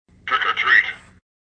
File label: Trick or Treat, Halloween toy says trick or treat.; Evil; Horror